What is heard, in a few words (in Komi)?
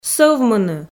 "сӧвмыны", Komi